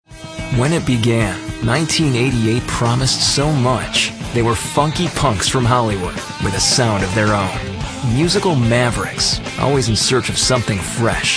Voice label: male narration

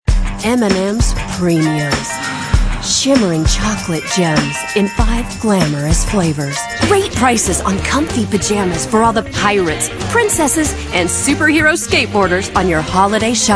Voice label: female, late20s late30s clear

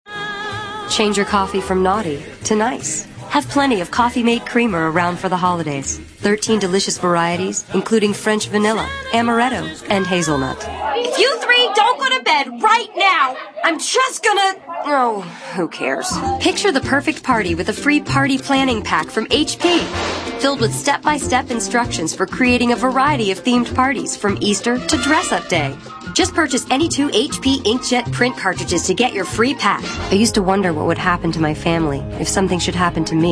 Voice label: female, late20s late30s clear

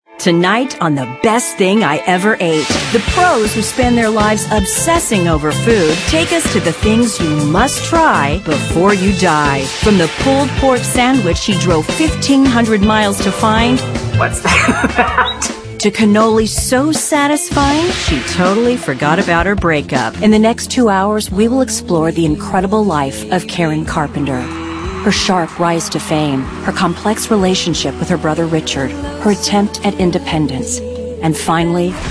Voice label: female narration

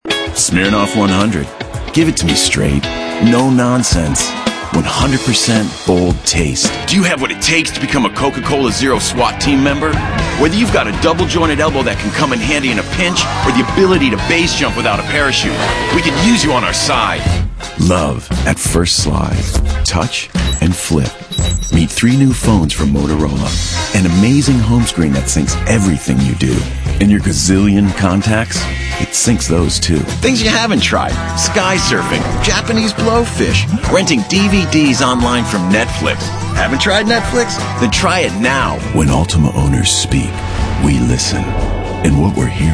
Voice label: male, mid 30s mid 40s, cool

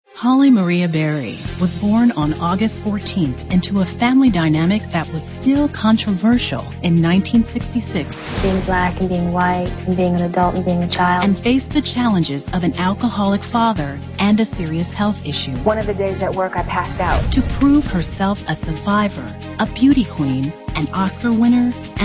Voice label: female narration

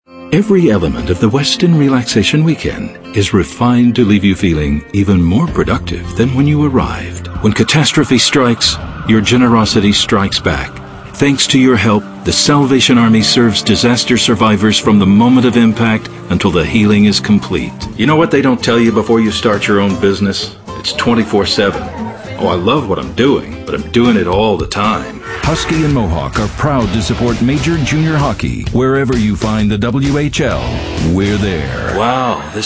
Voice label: male, sophisticated

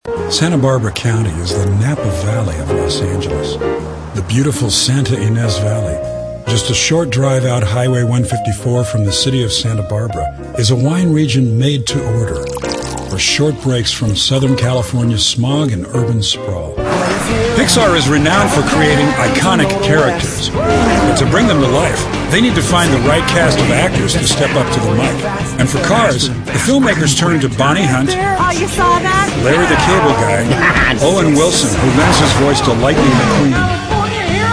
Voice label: male narration

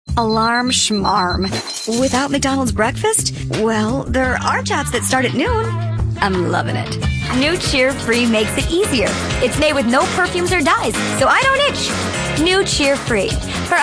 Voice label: female, natural character

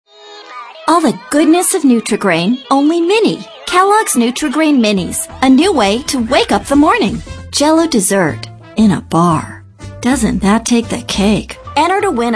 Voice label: natural character, female

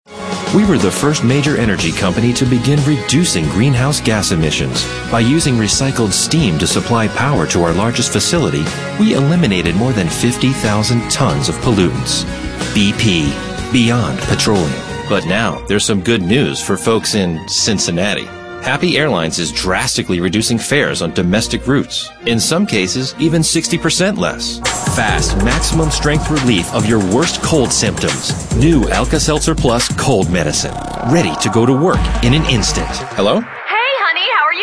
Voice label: male, mid30s mid40s warm

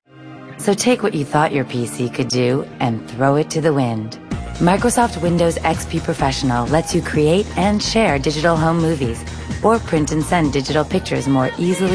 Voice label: female, late30s early40s clean

